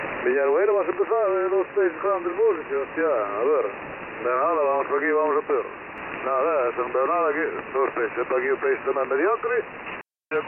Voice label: USB Fischereifunk; 13343khz; CloudIQ; Perseus; Reception in USB and 2.8. KHz band width; Antenna: ML200

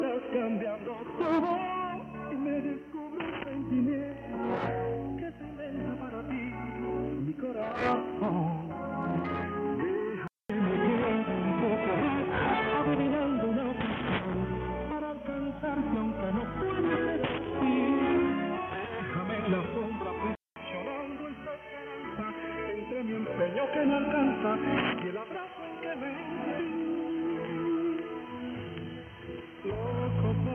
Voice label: 5025KHz, Radio Rebelde aus Kuba in AMS und 6.4KHz Bandbreite; Bei diesem starken Signal klingen alle drei recht ähnlich.; NRD545; IC-R8600; G33DDC

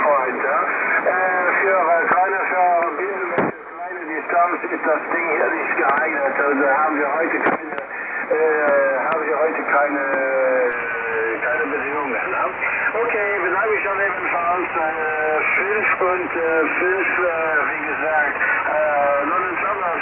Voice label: Amateurfunk 16m USB; 18138khz

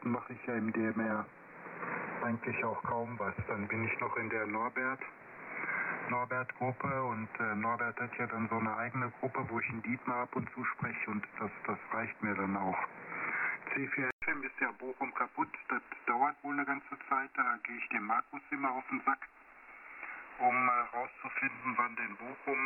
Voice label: LSB Amateurfunk; Belka

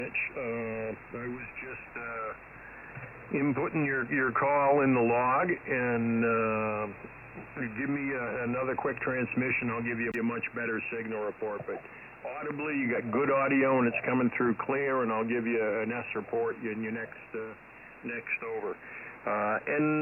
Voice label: Amateurfunk USB 2.7khz

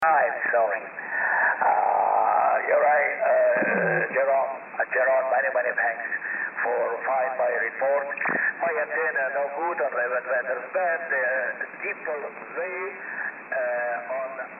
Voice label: CB Freeband USB; 27575khz; 2.5khz

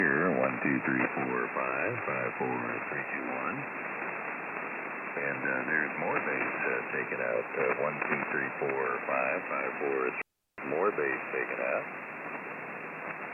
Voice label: Amateurfunk LSB; 7138khz

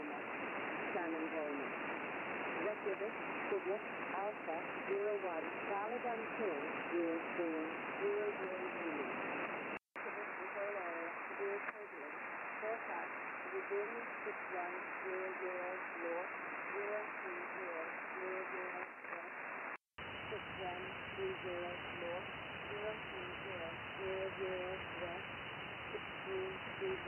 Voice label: 5505KHz, USB mit 2.4KHz Bandbreite; Shannon Volmet auf 5505KHz in USB mit 2.4KHz Bandbreite. Schlechte Bedingungen derzeit. Verständlichkeit bei allen drei etwa gleich.; NRD545; IC-R8600; G33DDC

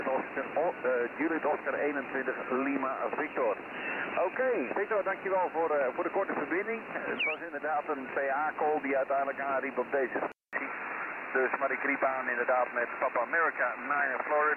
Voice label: 7120KHz, Amateurfunk im 40m Band; Amateurfunk 7120KHz LSB; Bei allen gut verständliches Signal mit gut erkennbarer Wiedergabekarakteristik.; NRD545; IC-R8600; G33DDC

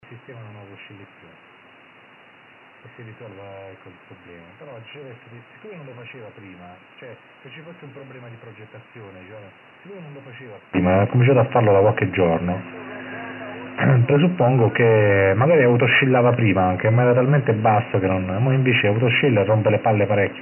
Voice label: USB CB Piraten; 27545khz

